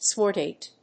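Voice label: "swordtail", English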